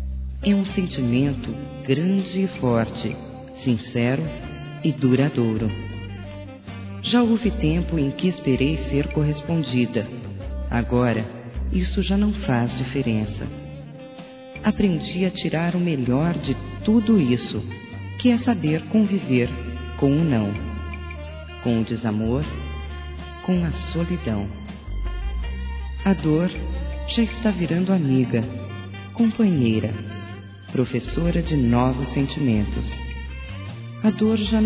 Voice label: AMOR NÃO CORRESPONDIDO F, Telemensagens

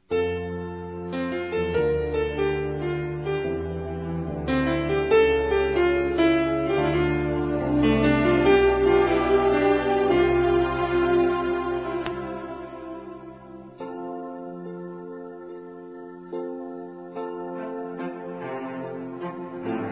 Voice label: CASAMENTO, Românticas, fem

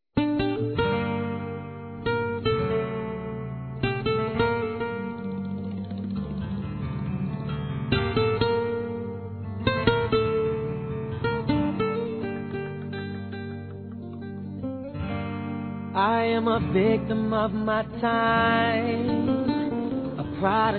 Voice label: Aniversário Avó Feminino; Aniversários; Aniv Familiar

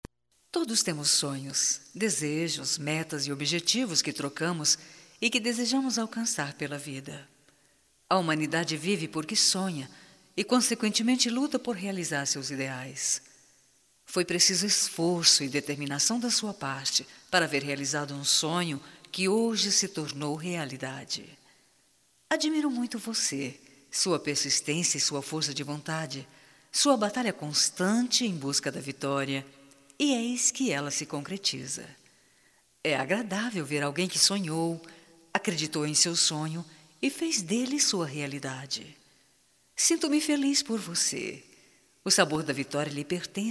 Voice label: VITORIA - F, Só Voz; fem; Telemensagens 2017

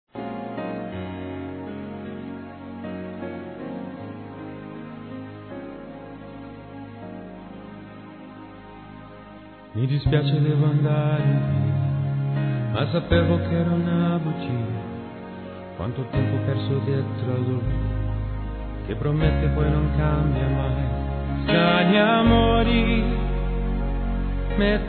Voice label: Homenagem Irmã Feminino

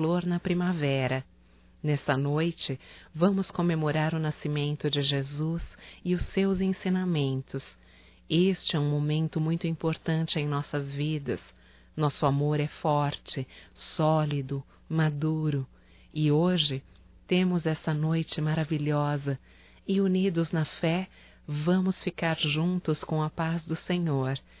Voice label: NATAL ROMANTICO, Telemensagens, fem; Telemensagens 2017; Natal & Ano Novo

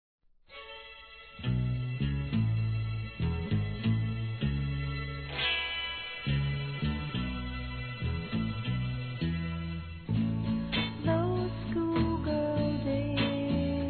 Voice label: Aniversário Mãe DUAS VOZES, Telemensagens, Fem; Aniversários; Aniv Familiar

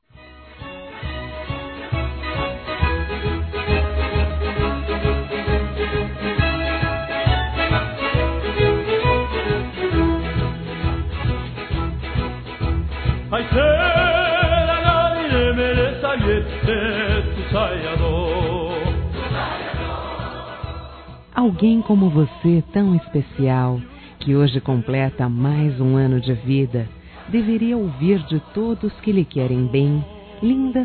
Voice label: Aniversário Primo Feminino; Aniversários; Aniv Familiar

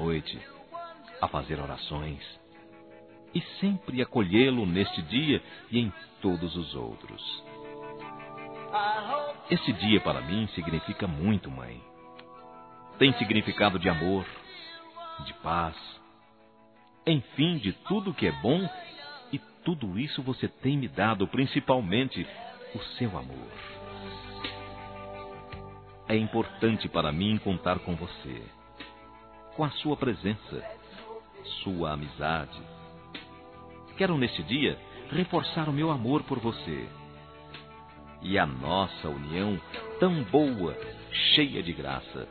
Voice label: NATAL P MÃE, masc; Telemensagens; Natal e Ano novo

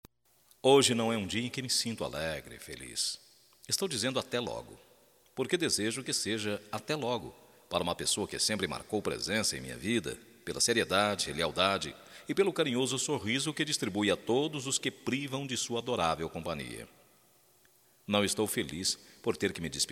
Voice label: BOA SORTE BOA VIAGEM - M

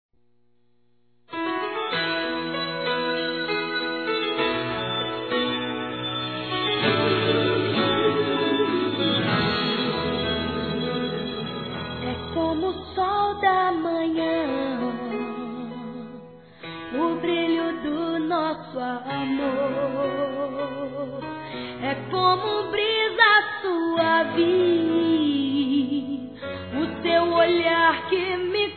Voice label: Aniversário Romântico Evangelico Masculino

